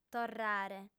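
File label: "torrare", Sardinian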